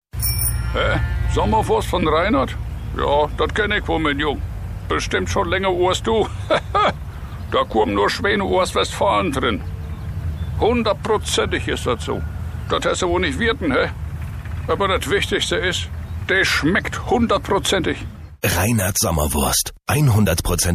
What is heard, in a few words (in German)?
Radiowerbung Funkspot; Reinert Sommerwurst